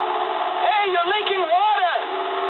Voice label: eng damaged water mood low, speech eng